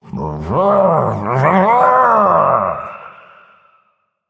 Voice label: Male Murloc Start; zh